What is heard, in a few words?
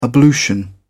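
"ablution", British English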